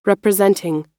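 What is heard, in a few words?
"representing", US English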